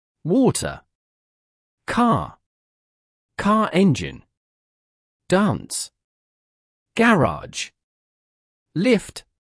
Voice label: british words

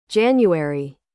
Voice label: "January", US English; ˈdʒæn.juˌer.i; تلفظ آمریکایی ژانویه

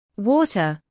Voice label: british water

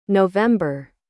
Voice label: "November", US English; noʊˈvem.bər; تلفظ آمریکایی نوامبر

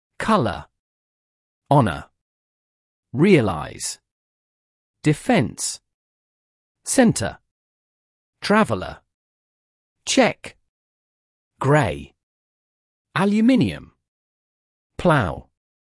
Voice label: british spelling pronunciation

